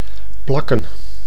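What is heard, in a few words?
"plakken", Dutch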